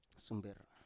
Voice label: "sumber", Biak